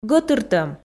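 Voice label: "гӧтыртӧм", Komi, female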